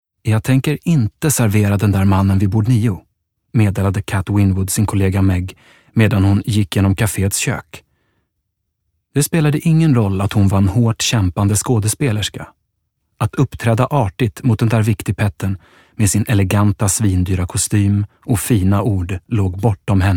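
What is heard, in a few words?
Swedish audiobook male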